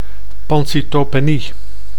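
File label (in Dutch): "pancytopenie", Dutch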